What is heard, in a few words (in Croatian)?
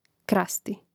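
"krasti", Croatian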